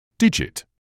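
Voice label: "digit", British English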